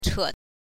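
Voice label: "che", Mandarin Chinese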